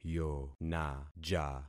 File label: "yonaja", concat syllables; Affixing experiment